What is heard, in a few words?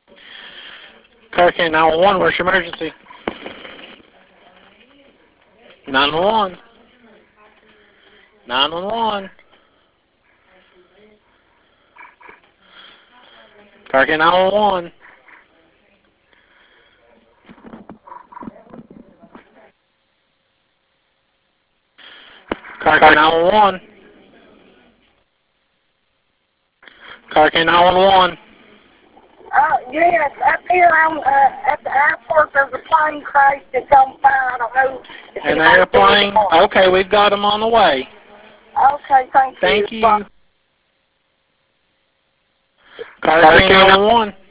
911 call; dale earnhardt jr plane crash